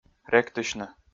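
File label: "ректысьны", Komi, male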